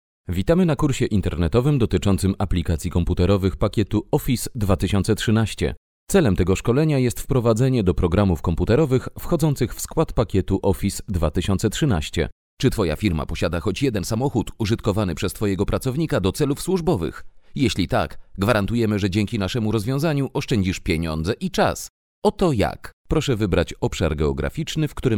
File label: male polish voice over talent narrative